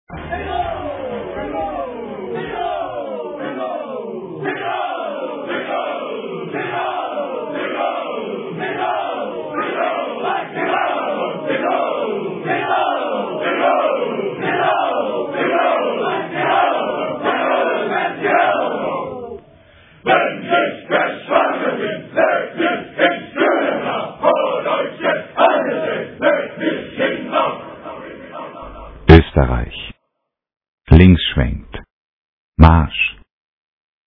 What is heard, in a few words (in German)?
trailer